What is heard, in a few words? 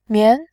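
"mian", Mandarin Chinese, second tone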